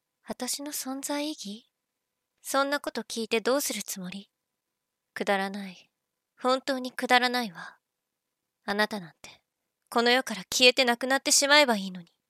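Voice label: cold woman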